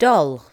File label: "doll", english